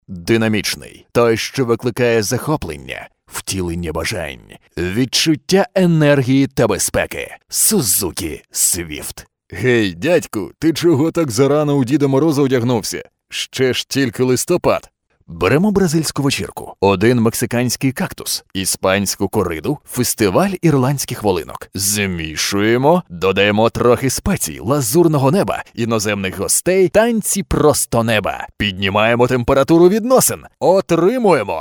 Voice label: UKR